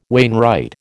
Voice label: "wainwright", American